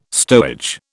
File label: "stowage", British